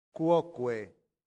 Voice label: "quoque", latin; santillana